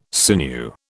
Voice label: "sinew", British